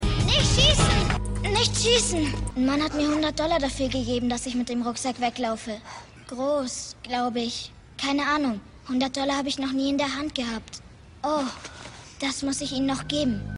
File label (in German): Junge; The Unusuals